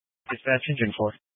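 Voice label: Fire Dispatch, scanner audio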